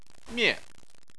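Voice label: "mie", Chinese, fourth tone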